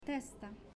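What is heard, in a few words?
"Testa", Italian, female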